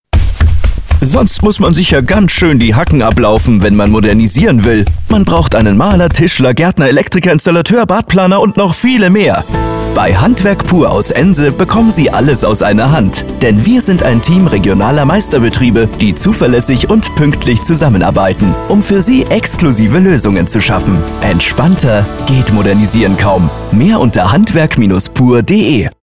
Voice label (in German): radiospot